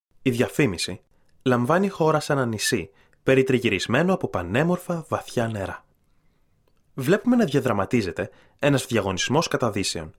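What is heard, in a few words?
Greek narration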